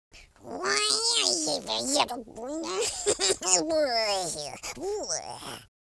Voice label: angry, American Wrestler

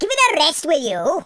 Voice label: leave me alone, Welsh; speech

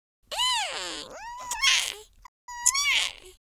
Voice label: happy, speech

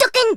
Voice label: grenade, German

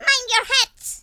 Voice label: "take cover", Irish; speech

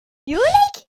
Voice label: relief, Geordie; speech

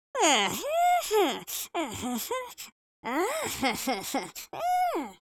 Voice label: angry, Geordie; speech

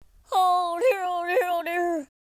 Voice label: sad, Drill Sergeant; speech

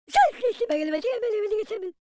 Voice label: pray, Italian

